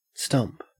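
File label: "stump", Australian English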